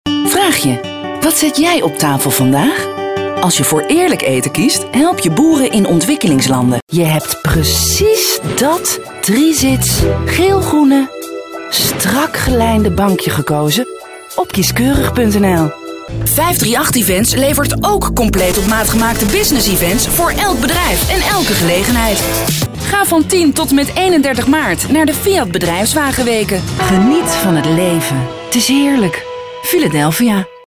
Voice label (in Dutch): commercials